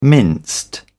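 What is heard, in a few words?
"minced", British English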